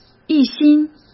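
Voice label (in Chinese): "一心", Mandarin Chinese; hsk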